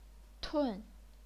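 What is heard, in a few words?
"tun", chinese pinyin, fourth tone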